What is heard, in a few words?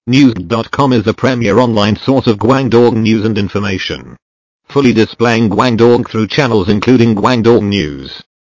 英语男